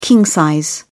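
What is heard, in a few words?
"king-size", British English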